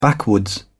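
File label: "backwoods", British English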